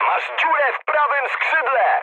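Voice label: right wing mood high, speech Polish; War Thunder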